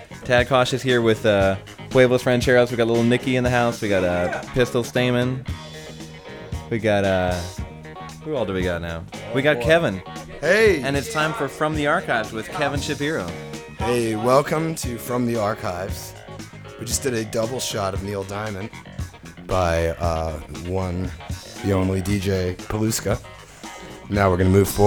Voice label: radio banter; Big Cypress